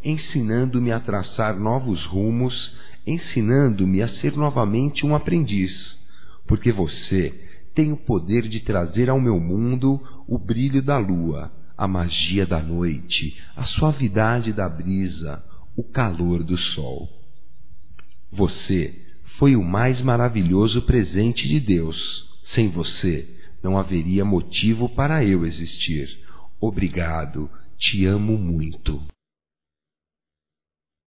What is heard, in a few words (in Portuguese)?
FILHO, masc; Amizades; Telemensagens 2017